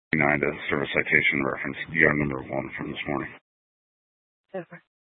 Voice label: Police Department Dispatch, Simulcast; Apache Junction; TOPAZ Regional Wireless Cooperative (TRWC); Mesa Simulcast